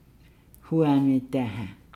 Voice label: "daahaa", Nukuoro; coconut second stage